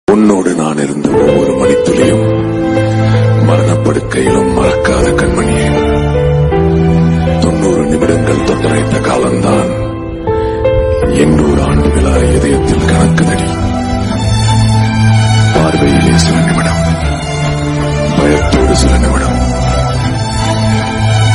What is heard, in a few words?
tamil heroine dialogues romantic